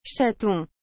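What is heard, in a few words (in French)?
"chaton", French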